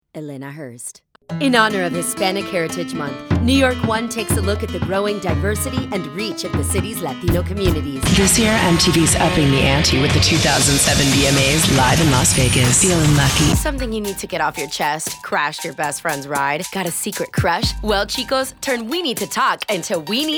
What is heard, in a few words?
promo, women